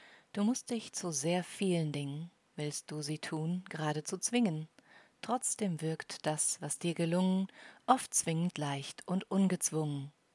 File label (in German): Tonspur Deutsch